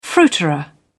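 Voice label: "fruiterer", British English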